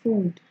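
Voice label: "food", Nigerian Pidgin, female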